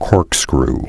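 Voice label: "corkscrew", English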